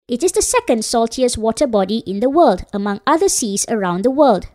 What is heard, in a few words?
tourist guide